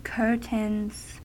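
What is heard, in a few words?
"curtains", US English; pronunciations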